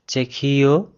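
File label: "czech", Esperanto; gcompris; geography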